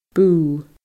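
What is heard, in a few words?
"bùth", Scottish Gaelic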